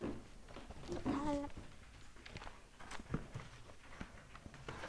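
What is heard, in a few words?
"telephone", spoken word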